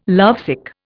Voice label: "lovesick", Indian